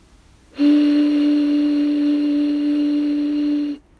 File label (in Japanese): 中音